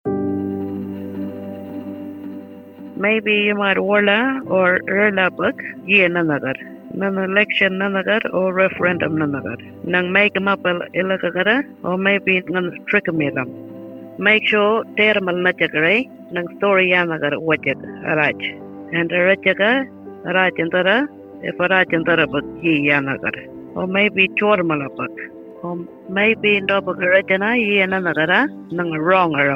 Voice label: Arrernte, Radio mix; indigenous; AEC Misinfo